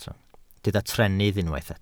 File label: "trennydd", Welsh